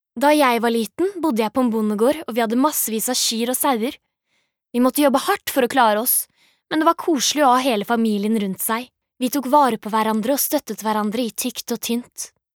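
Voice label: Norwegian dub young adult, female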